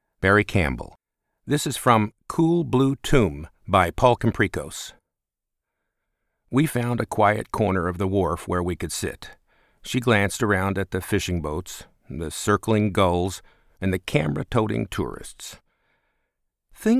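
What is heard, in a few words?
Audiobook M-F Dialog, Voice Actor; Male-Female / Dialog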